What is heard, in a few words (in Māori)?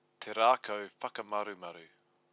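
"te rakau whakamarumaru", Māori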